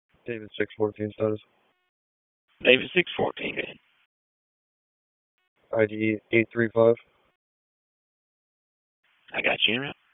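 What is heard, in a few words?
Police Southwest District Dispatch; Raleigh; wake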